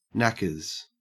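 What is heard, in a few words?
"knackers", Australian English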